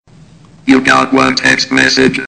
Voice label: Robot Voice Sms

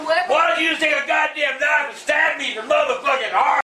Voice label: angry grandpa knife

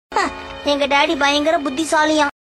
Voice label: Tamil Dialogue; Ben10

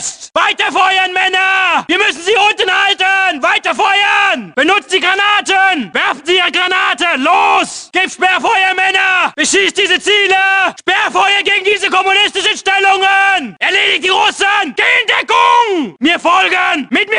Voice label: Wehrmacht Voices

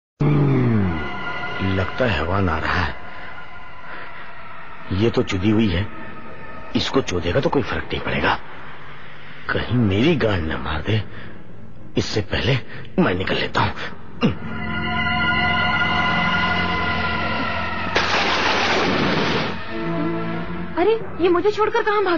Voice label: Funny Dialog Hindi

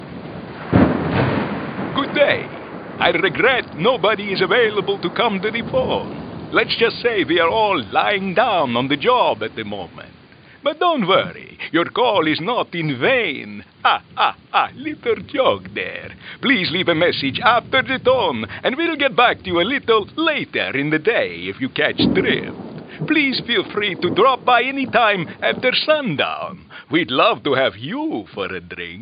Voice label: Answerphone; Vampire

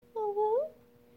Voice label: Cute Girl UwU

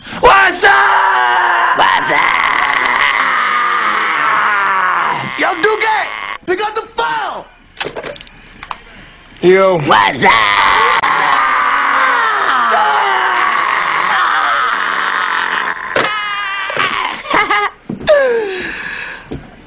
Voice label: Scream Waazzuup

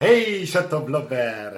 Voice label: Dad Funny Swedish

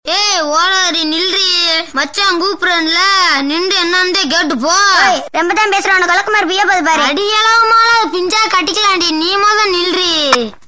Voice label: Tamil Dialogue

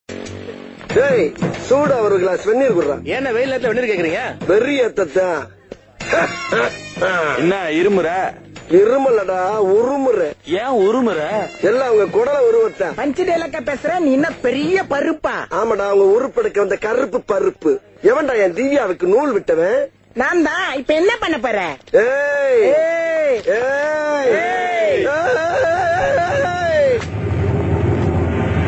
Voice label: Comedy; Vadivelu

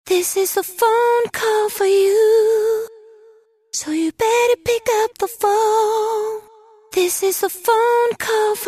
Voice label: Girl Sweet Voice

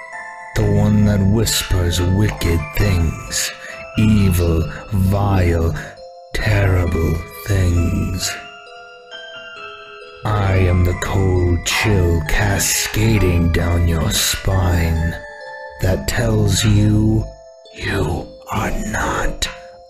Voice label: Nightmare voice; Fnaf

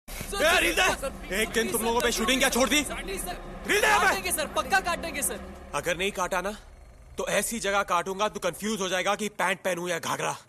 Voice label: Dirty Dialogue

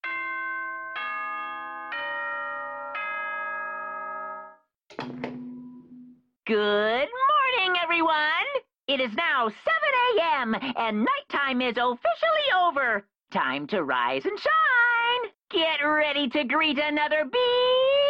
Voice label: Morning Announcement

